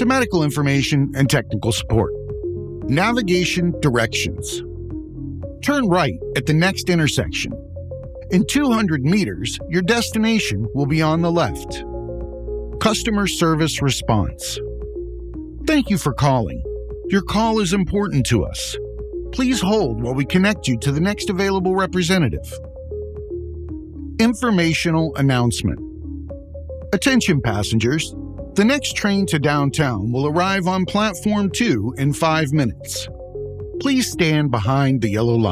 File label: Talk to Text Technology Clear, Accurate, and User Friendly Narration for Speech Recognition; for Speech Recognition Apps